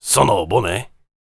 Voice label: warrior conversation emotion question

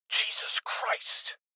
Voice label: Voices Heavy Losses Male; Rebel Cops

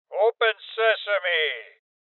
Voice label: Voices Door Kick Male Old; rebel cops 2019 gamerip

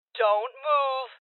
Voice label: Voices Freeze Female

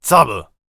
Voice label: VO warrior conversation emotion damn

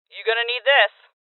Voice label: Voices Throw Clip Female; rebel cops 2019 gamerip

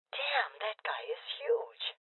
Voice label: Voices Heavy Spotted Female Old; Rebel Cops

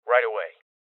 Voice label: Voices Confirmation Male Young

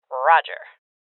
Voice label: Confirmation Female; Rebel Cops

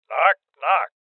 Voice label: Voices Door Kick Male Old; rebel cops 2019 gamerip

